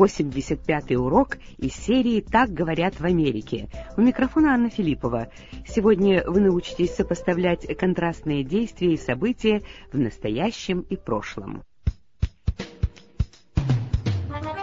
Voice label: russian english